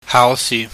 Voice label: "haauhsih", Cantonese